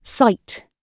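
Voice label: "cite", British English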